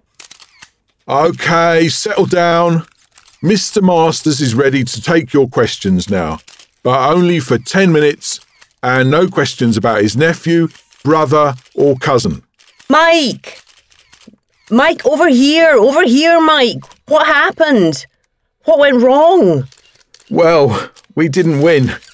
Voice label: Ingles sin verguenza pronunciation